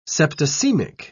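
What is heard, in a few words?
"septicaemic", British English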